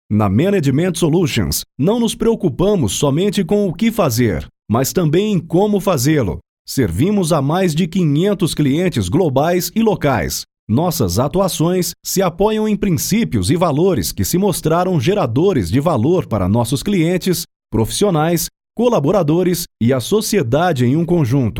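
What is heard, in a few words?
Brazilian Portuguese corporate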